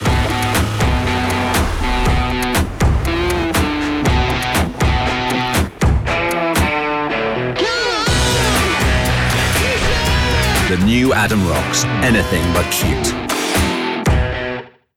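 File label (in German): British English, Fremdtake Cool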